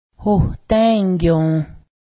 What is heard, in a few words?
gone, Cayuga